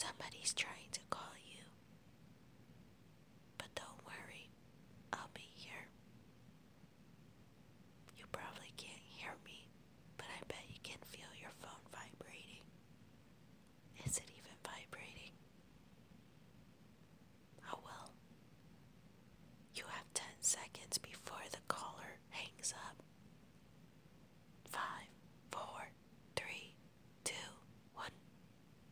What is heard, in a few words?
Whispers